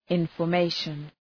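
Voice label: "information", English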